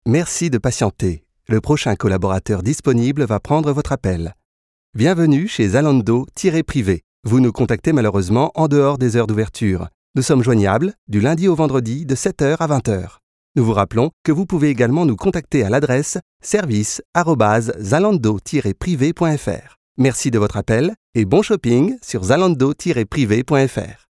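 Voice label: French telephone; Telefon; sprecher; franzoesisch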